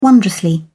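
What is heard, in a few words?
"wondrously", British English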